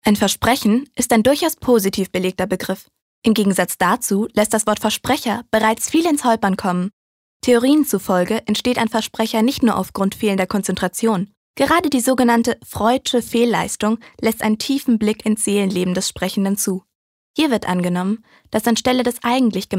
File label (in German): Versprecher Neutral